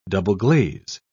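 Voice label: "double-glaze", British English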